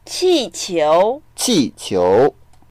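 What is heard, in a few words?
"qi4qiu2", Mandarin Chinese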